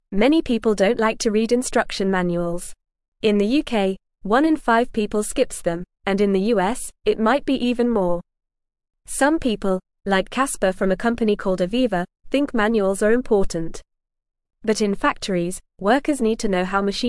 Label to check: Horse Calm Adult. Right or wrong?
Wrong